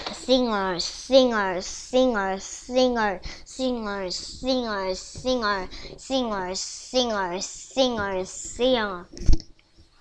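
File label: "singer", spoken word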